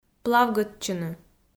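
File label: "плавгӧдчыны", Komi, female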